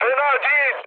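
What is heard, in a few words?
Turkish trick, mood high, speech; War Thunder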